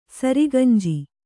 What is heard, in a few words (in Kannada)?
"ಸರಿಗಂಜಿ", Kannada